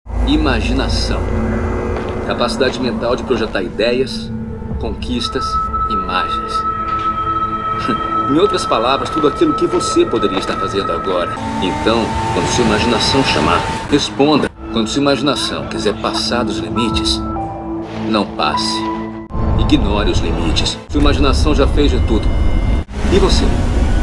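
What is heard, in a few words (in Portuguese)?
Locução, Voz Padrão - Grave, Português - Brasileiro, Masculino; Locução para IBM - Cloud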